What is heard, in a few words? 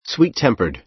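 "sweet-tempered", American English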